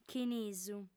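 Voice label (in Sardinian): "chinisu", female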